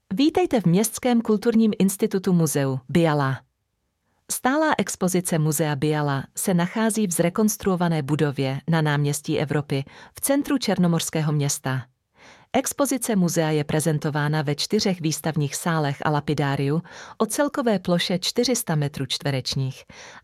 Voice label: Museum Intro Czech; audiopruvodce muzeem